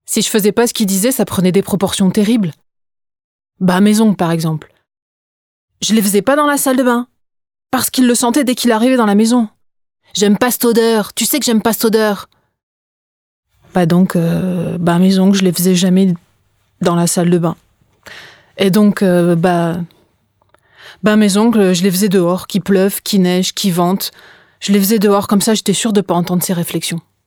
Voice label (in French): Voice over